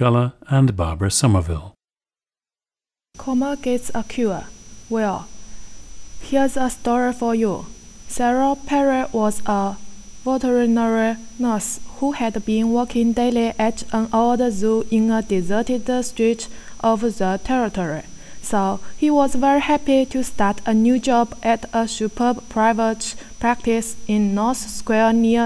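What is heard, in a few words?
jiangsu, dialects of English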